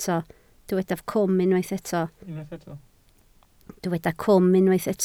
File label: "cwm", Welsh